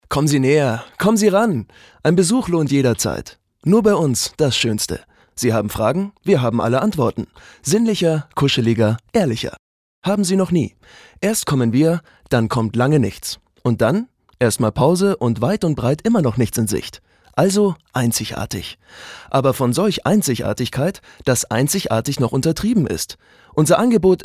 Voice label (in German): Schauspiel Dynamisch